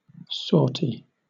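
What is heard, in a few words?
"sortie", British English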